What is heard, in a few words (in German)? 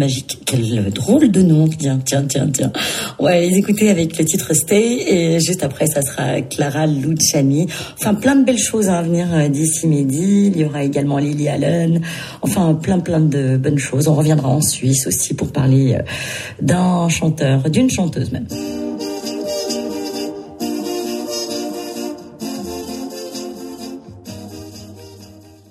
Ansage option musique